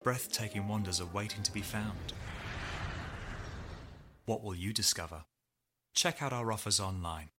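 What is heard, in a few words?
UK English Commercial; GoLocalise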